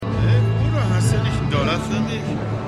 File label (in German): Obdachloser; The Wire